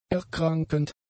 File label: "erkrankend", German; adjectives